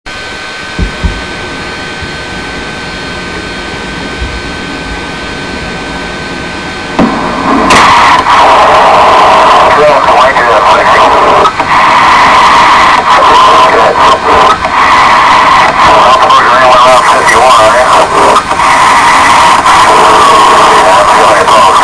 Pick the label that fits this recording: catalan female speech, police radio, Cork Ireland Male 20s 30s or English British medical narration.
police radio